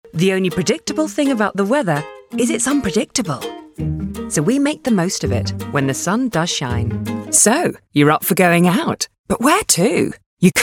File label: Commercial